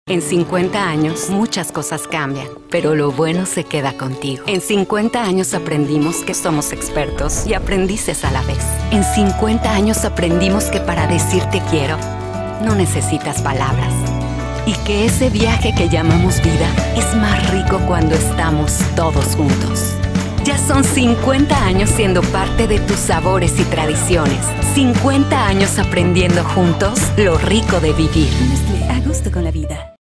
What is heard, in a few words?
spanish mexican promos